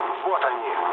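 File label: Russian enemy detected mood low, speech; War Thunder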